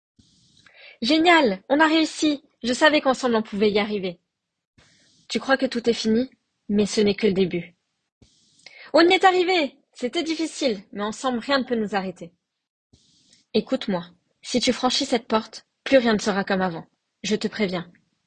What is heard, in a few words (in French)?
Exemples doublages